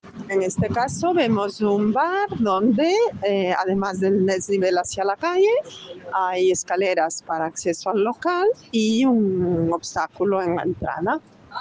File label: Voice message